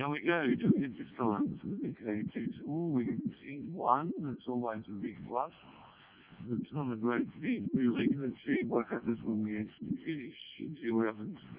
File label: decoded speech, parrot